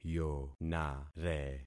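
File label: "yonare", concatenated syllables; Affixing experiment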